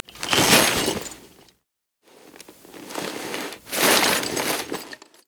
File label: hermit idle read; LoL; emitters map12